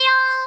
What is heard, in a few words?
"yo" C5; 音MAD; 孤独摇滚; 虹夏